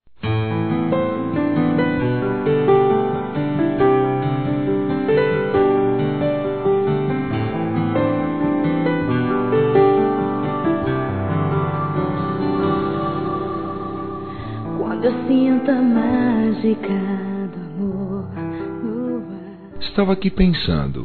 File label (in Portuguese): masc; Fazer amor com você; Telemensagens 2017; Saudades